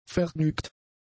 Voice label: "vergnügt", German; adjectives